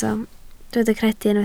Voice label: "credu", Welsh

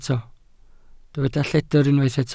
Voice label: "lledr", Welsh

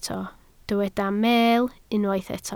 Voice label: "meel", Welsh

